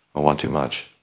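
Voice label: Voice Acting, Spanish, male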